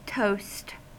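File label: "toast", US English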